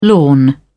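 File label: "lawn", British English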